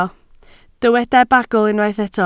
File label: "bagl", Welsh